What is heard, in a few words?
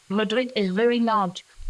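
voice acting, es, female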